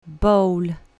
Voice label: "bowl", English

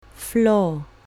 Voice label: "floor", English; house elements

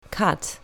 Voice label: "cat", English; pet animals

